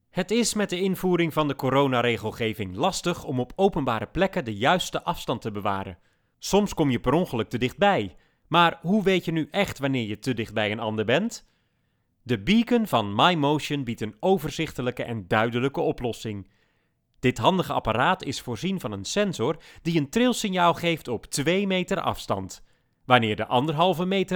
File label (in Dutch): meer intonatie